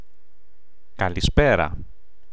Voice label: kalispera, greek